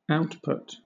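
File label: "output", British English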